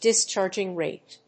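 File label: "discharging rate", English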